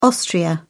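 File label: "austria", British English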